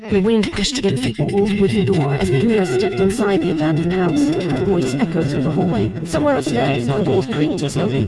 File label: narrator neutral